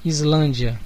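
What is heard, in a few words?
"iceland", Brazilian Portuguese; gcompris; geography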